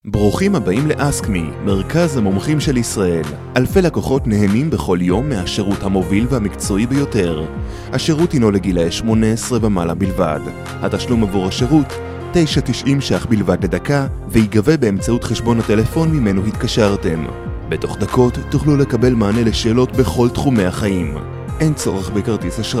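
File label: Hebrew, male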